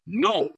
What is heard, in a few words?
Voice Acting, es